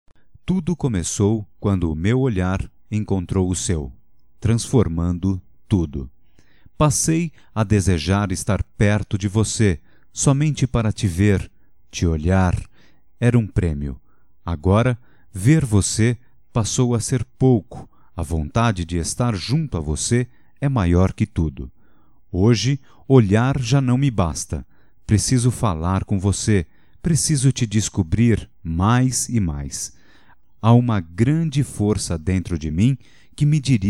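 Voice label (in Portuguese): QUERO VOCE, Só Voz, masculino; Telemensagens; Telemensagens 2017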